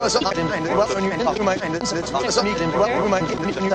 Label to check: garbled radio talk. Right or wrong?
Right